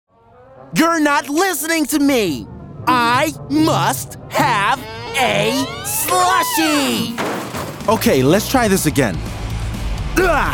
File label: Animation